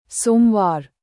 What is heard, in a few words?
"somvar", hindi